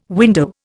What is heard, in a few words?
"window", Indian